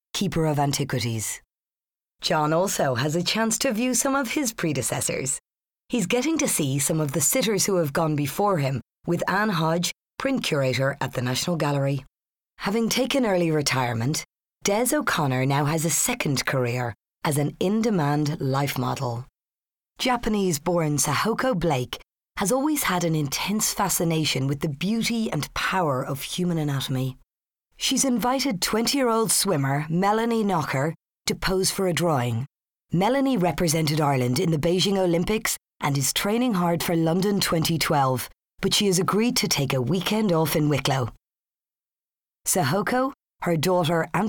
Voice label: Documentary, Arts Documentary Irish Tv, Irish female voiceover; Naked